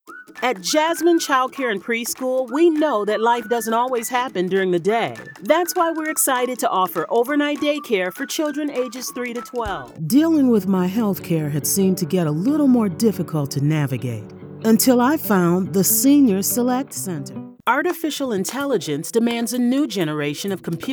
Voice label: Commercial, English (Neutral - Mid Trans Atlantic)